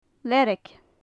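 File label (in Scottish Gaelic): "learag", Gaelic; larch; learagan; Faclan Nàdair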